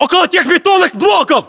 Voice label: landmark near cinderblocks, ru; localized english

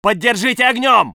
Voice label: voice sov infantry

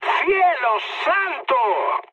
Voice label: trick, mood high, speech; War Thunder

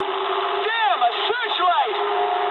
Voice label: eng searchlite mood med, speech eng; War Thunder

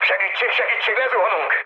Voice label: aircraft destroyed, speech hun; War Thunder

